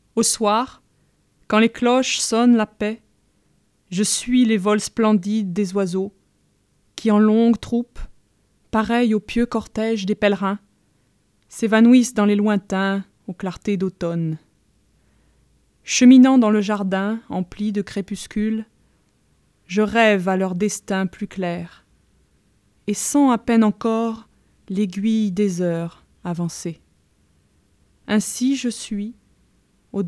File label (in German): gedicht franzoesisch